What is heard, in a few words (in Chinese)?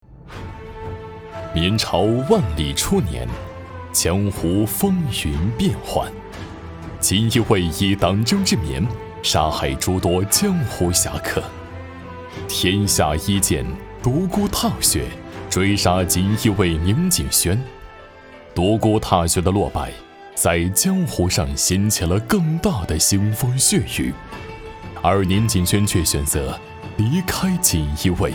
古风预告; 锦衣卫